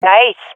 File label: sees enemy killed, swat fem; Counter Strike Model Pack; CS:GO